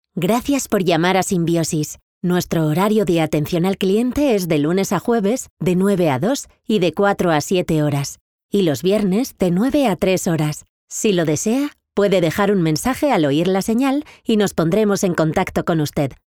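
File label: Spanish, female, IVR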